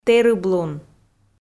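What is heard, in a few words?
"тэрыблун", Komi, female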